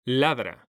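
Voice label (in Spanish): "LADRA", male; mekanta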